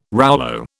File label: "rouleau", British